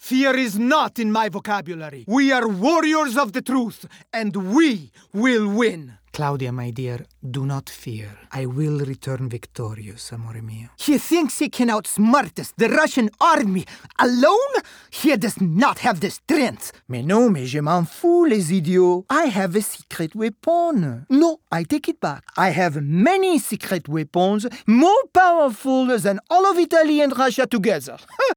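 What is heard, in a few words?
Animation video game voice over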